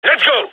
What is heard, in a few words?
radio lets go; gign